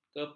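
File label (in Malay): "kepal", Malay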